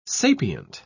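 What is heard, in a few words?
"sapient", British English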